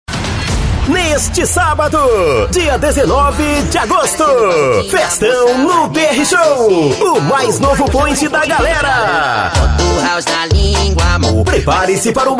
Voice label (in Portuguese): LOCUTOR; chamadas; FESTAO NO BR SHOW